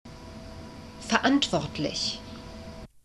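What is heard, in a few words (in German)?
"verantwortlich", German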